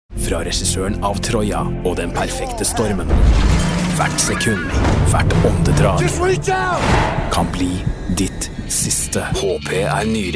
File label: no movietrailer